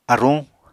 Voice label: "aruñ", Apatani